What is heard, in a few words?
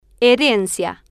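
"herencia" Spanish, female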